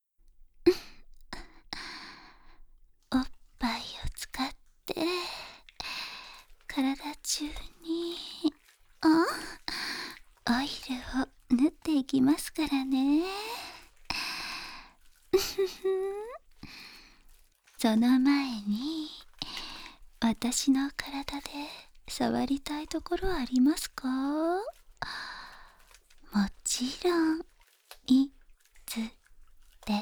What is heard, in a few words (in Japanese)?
全身性感オイルマッサージ+耳舐め（囁きブースト 効果音大きめ）; ぬるぬる人妻性感オイルマッサージ; フォーリーマット音